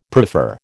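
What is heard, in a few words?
"prefer", American English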